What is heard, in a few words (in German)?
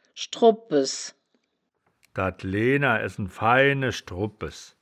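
"schdru bpes", wittlicher woerterbuch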